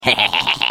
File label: Defective Turret defect laugh ru; sp sabotage factory